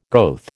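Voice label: "goeth", American English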